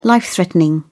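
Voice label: "life threatening", British English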